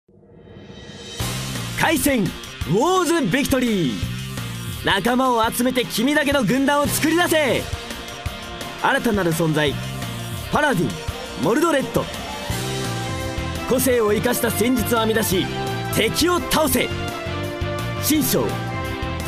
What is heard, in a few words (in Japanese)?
ナレーション; アギト; 「ウォーズビクトリー」